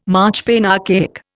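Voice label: "marchpane", Indian English; Dictionary; archaic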